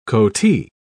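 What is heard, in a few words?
"coatee", British English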